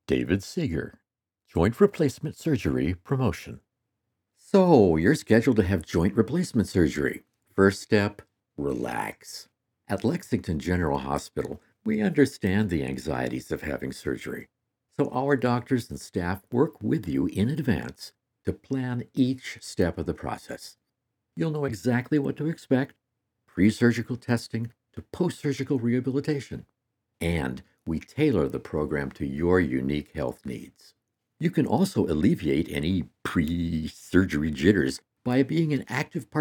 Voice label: PROMOTIONAL